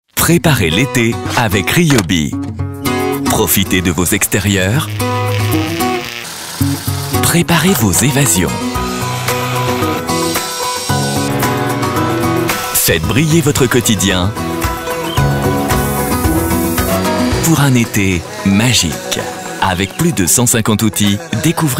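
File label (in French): souriant chaleureux, voix off publicite, homme; Ryobi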